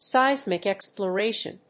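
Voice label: "seismic exploration", English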